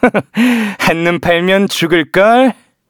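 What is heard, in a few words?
Vox Skill, Korean; Kibera; kingsraid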